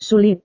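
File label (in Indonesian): "sulit", Indonesian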